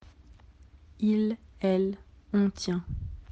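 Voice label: "tenir", French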